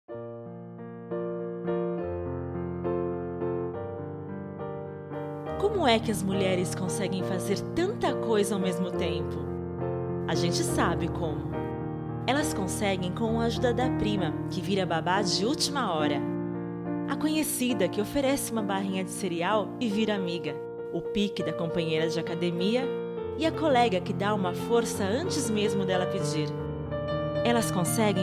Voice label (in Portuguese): Voz Natural; Bauducco